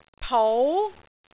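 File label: "pou2", Cantonese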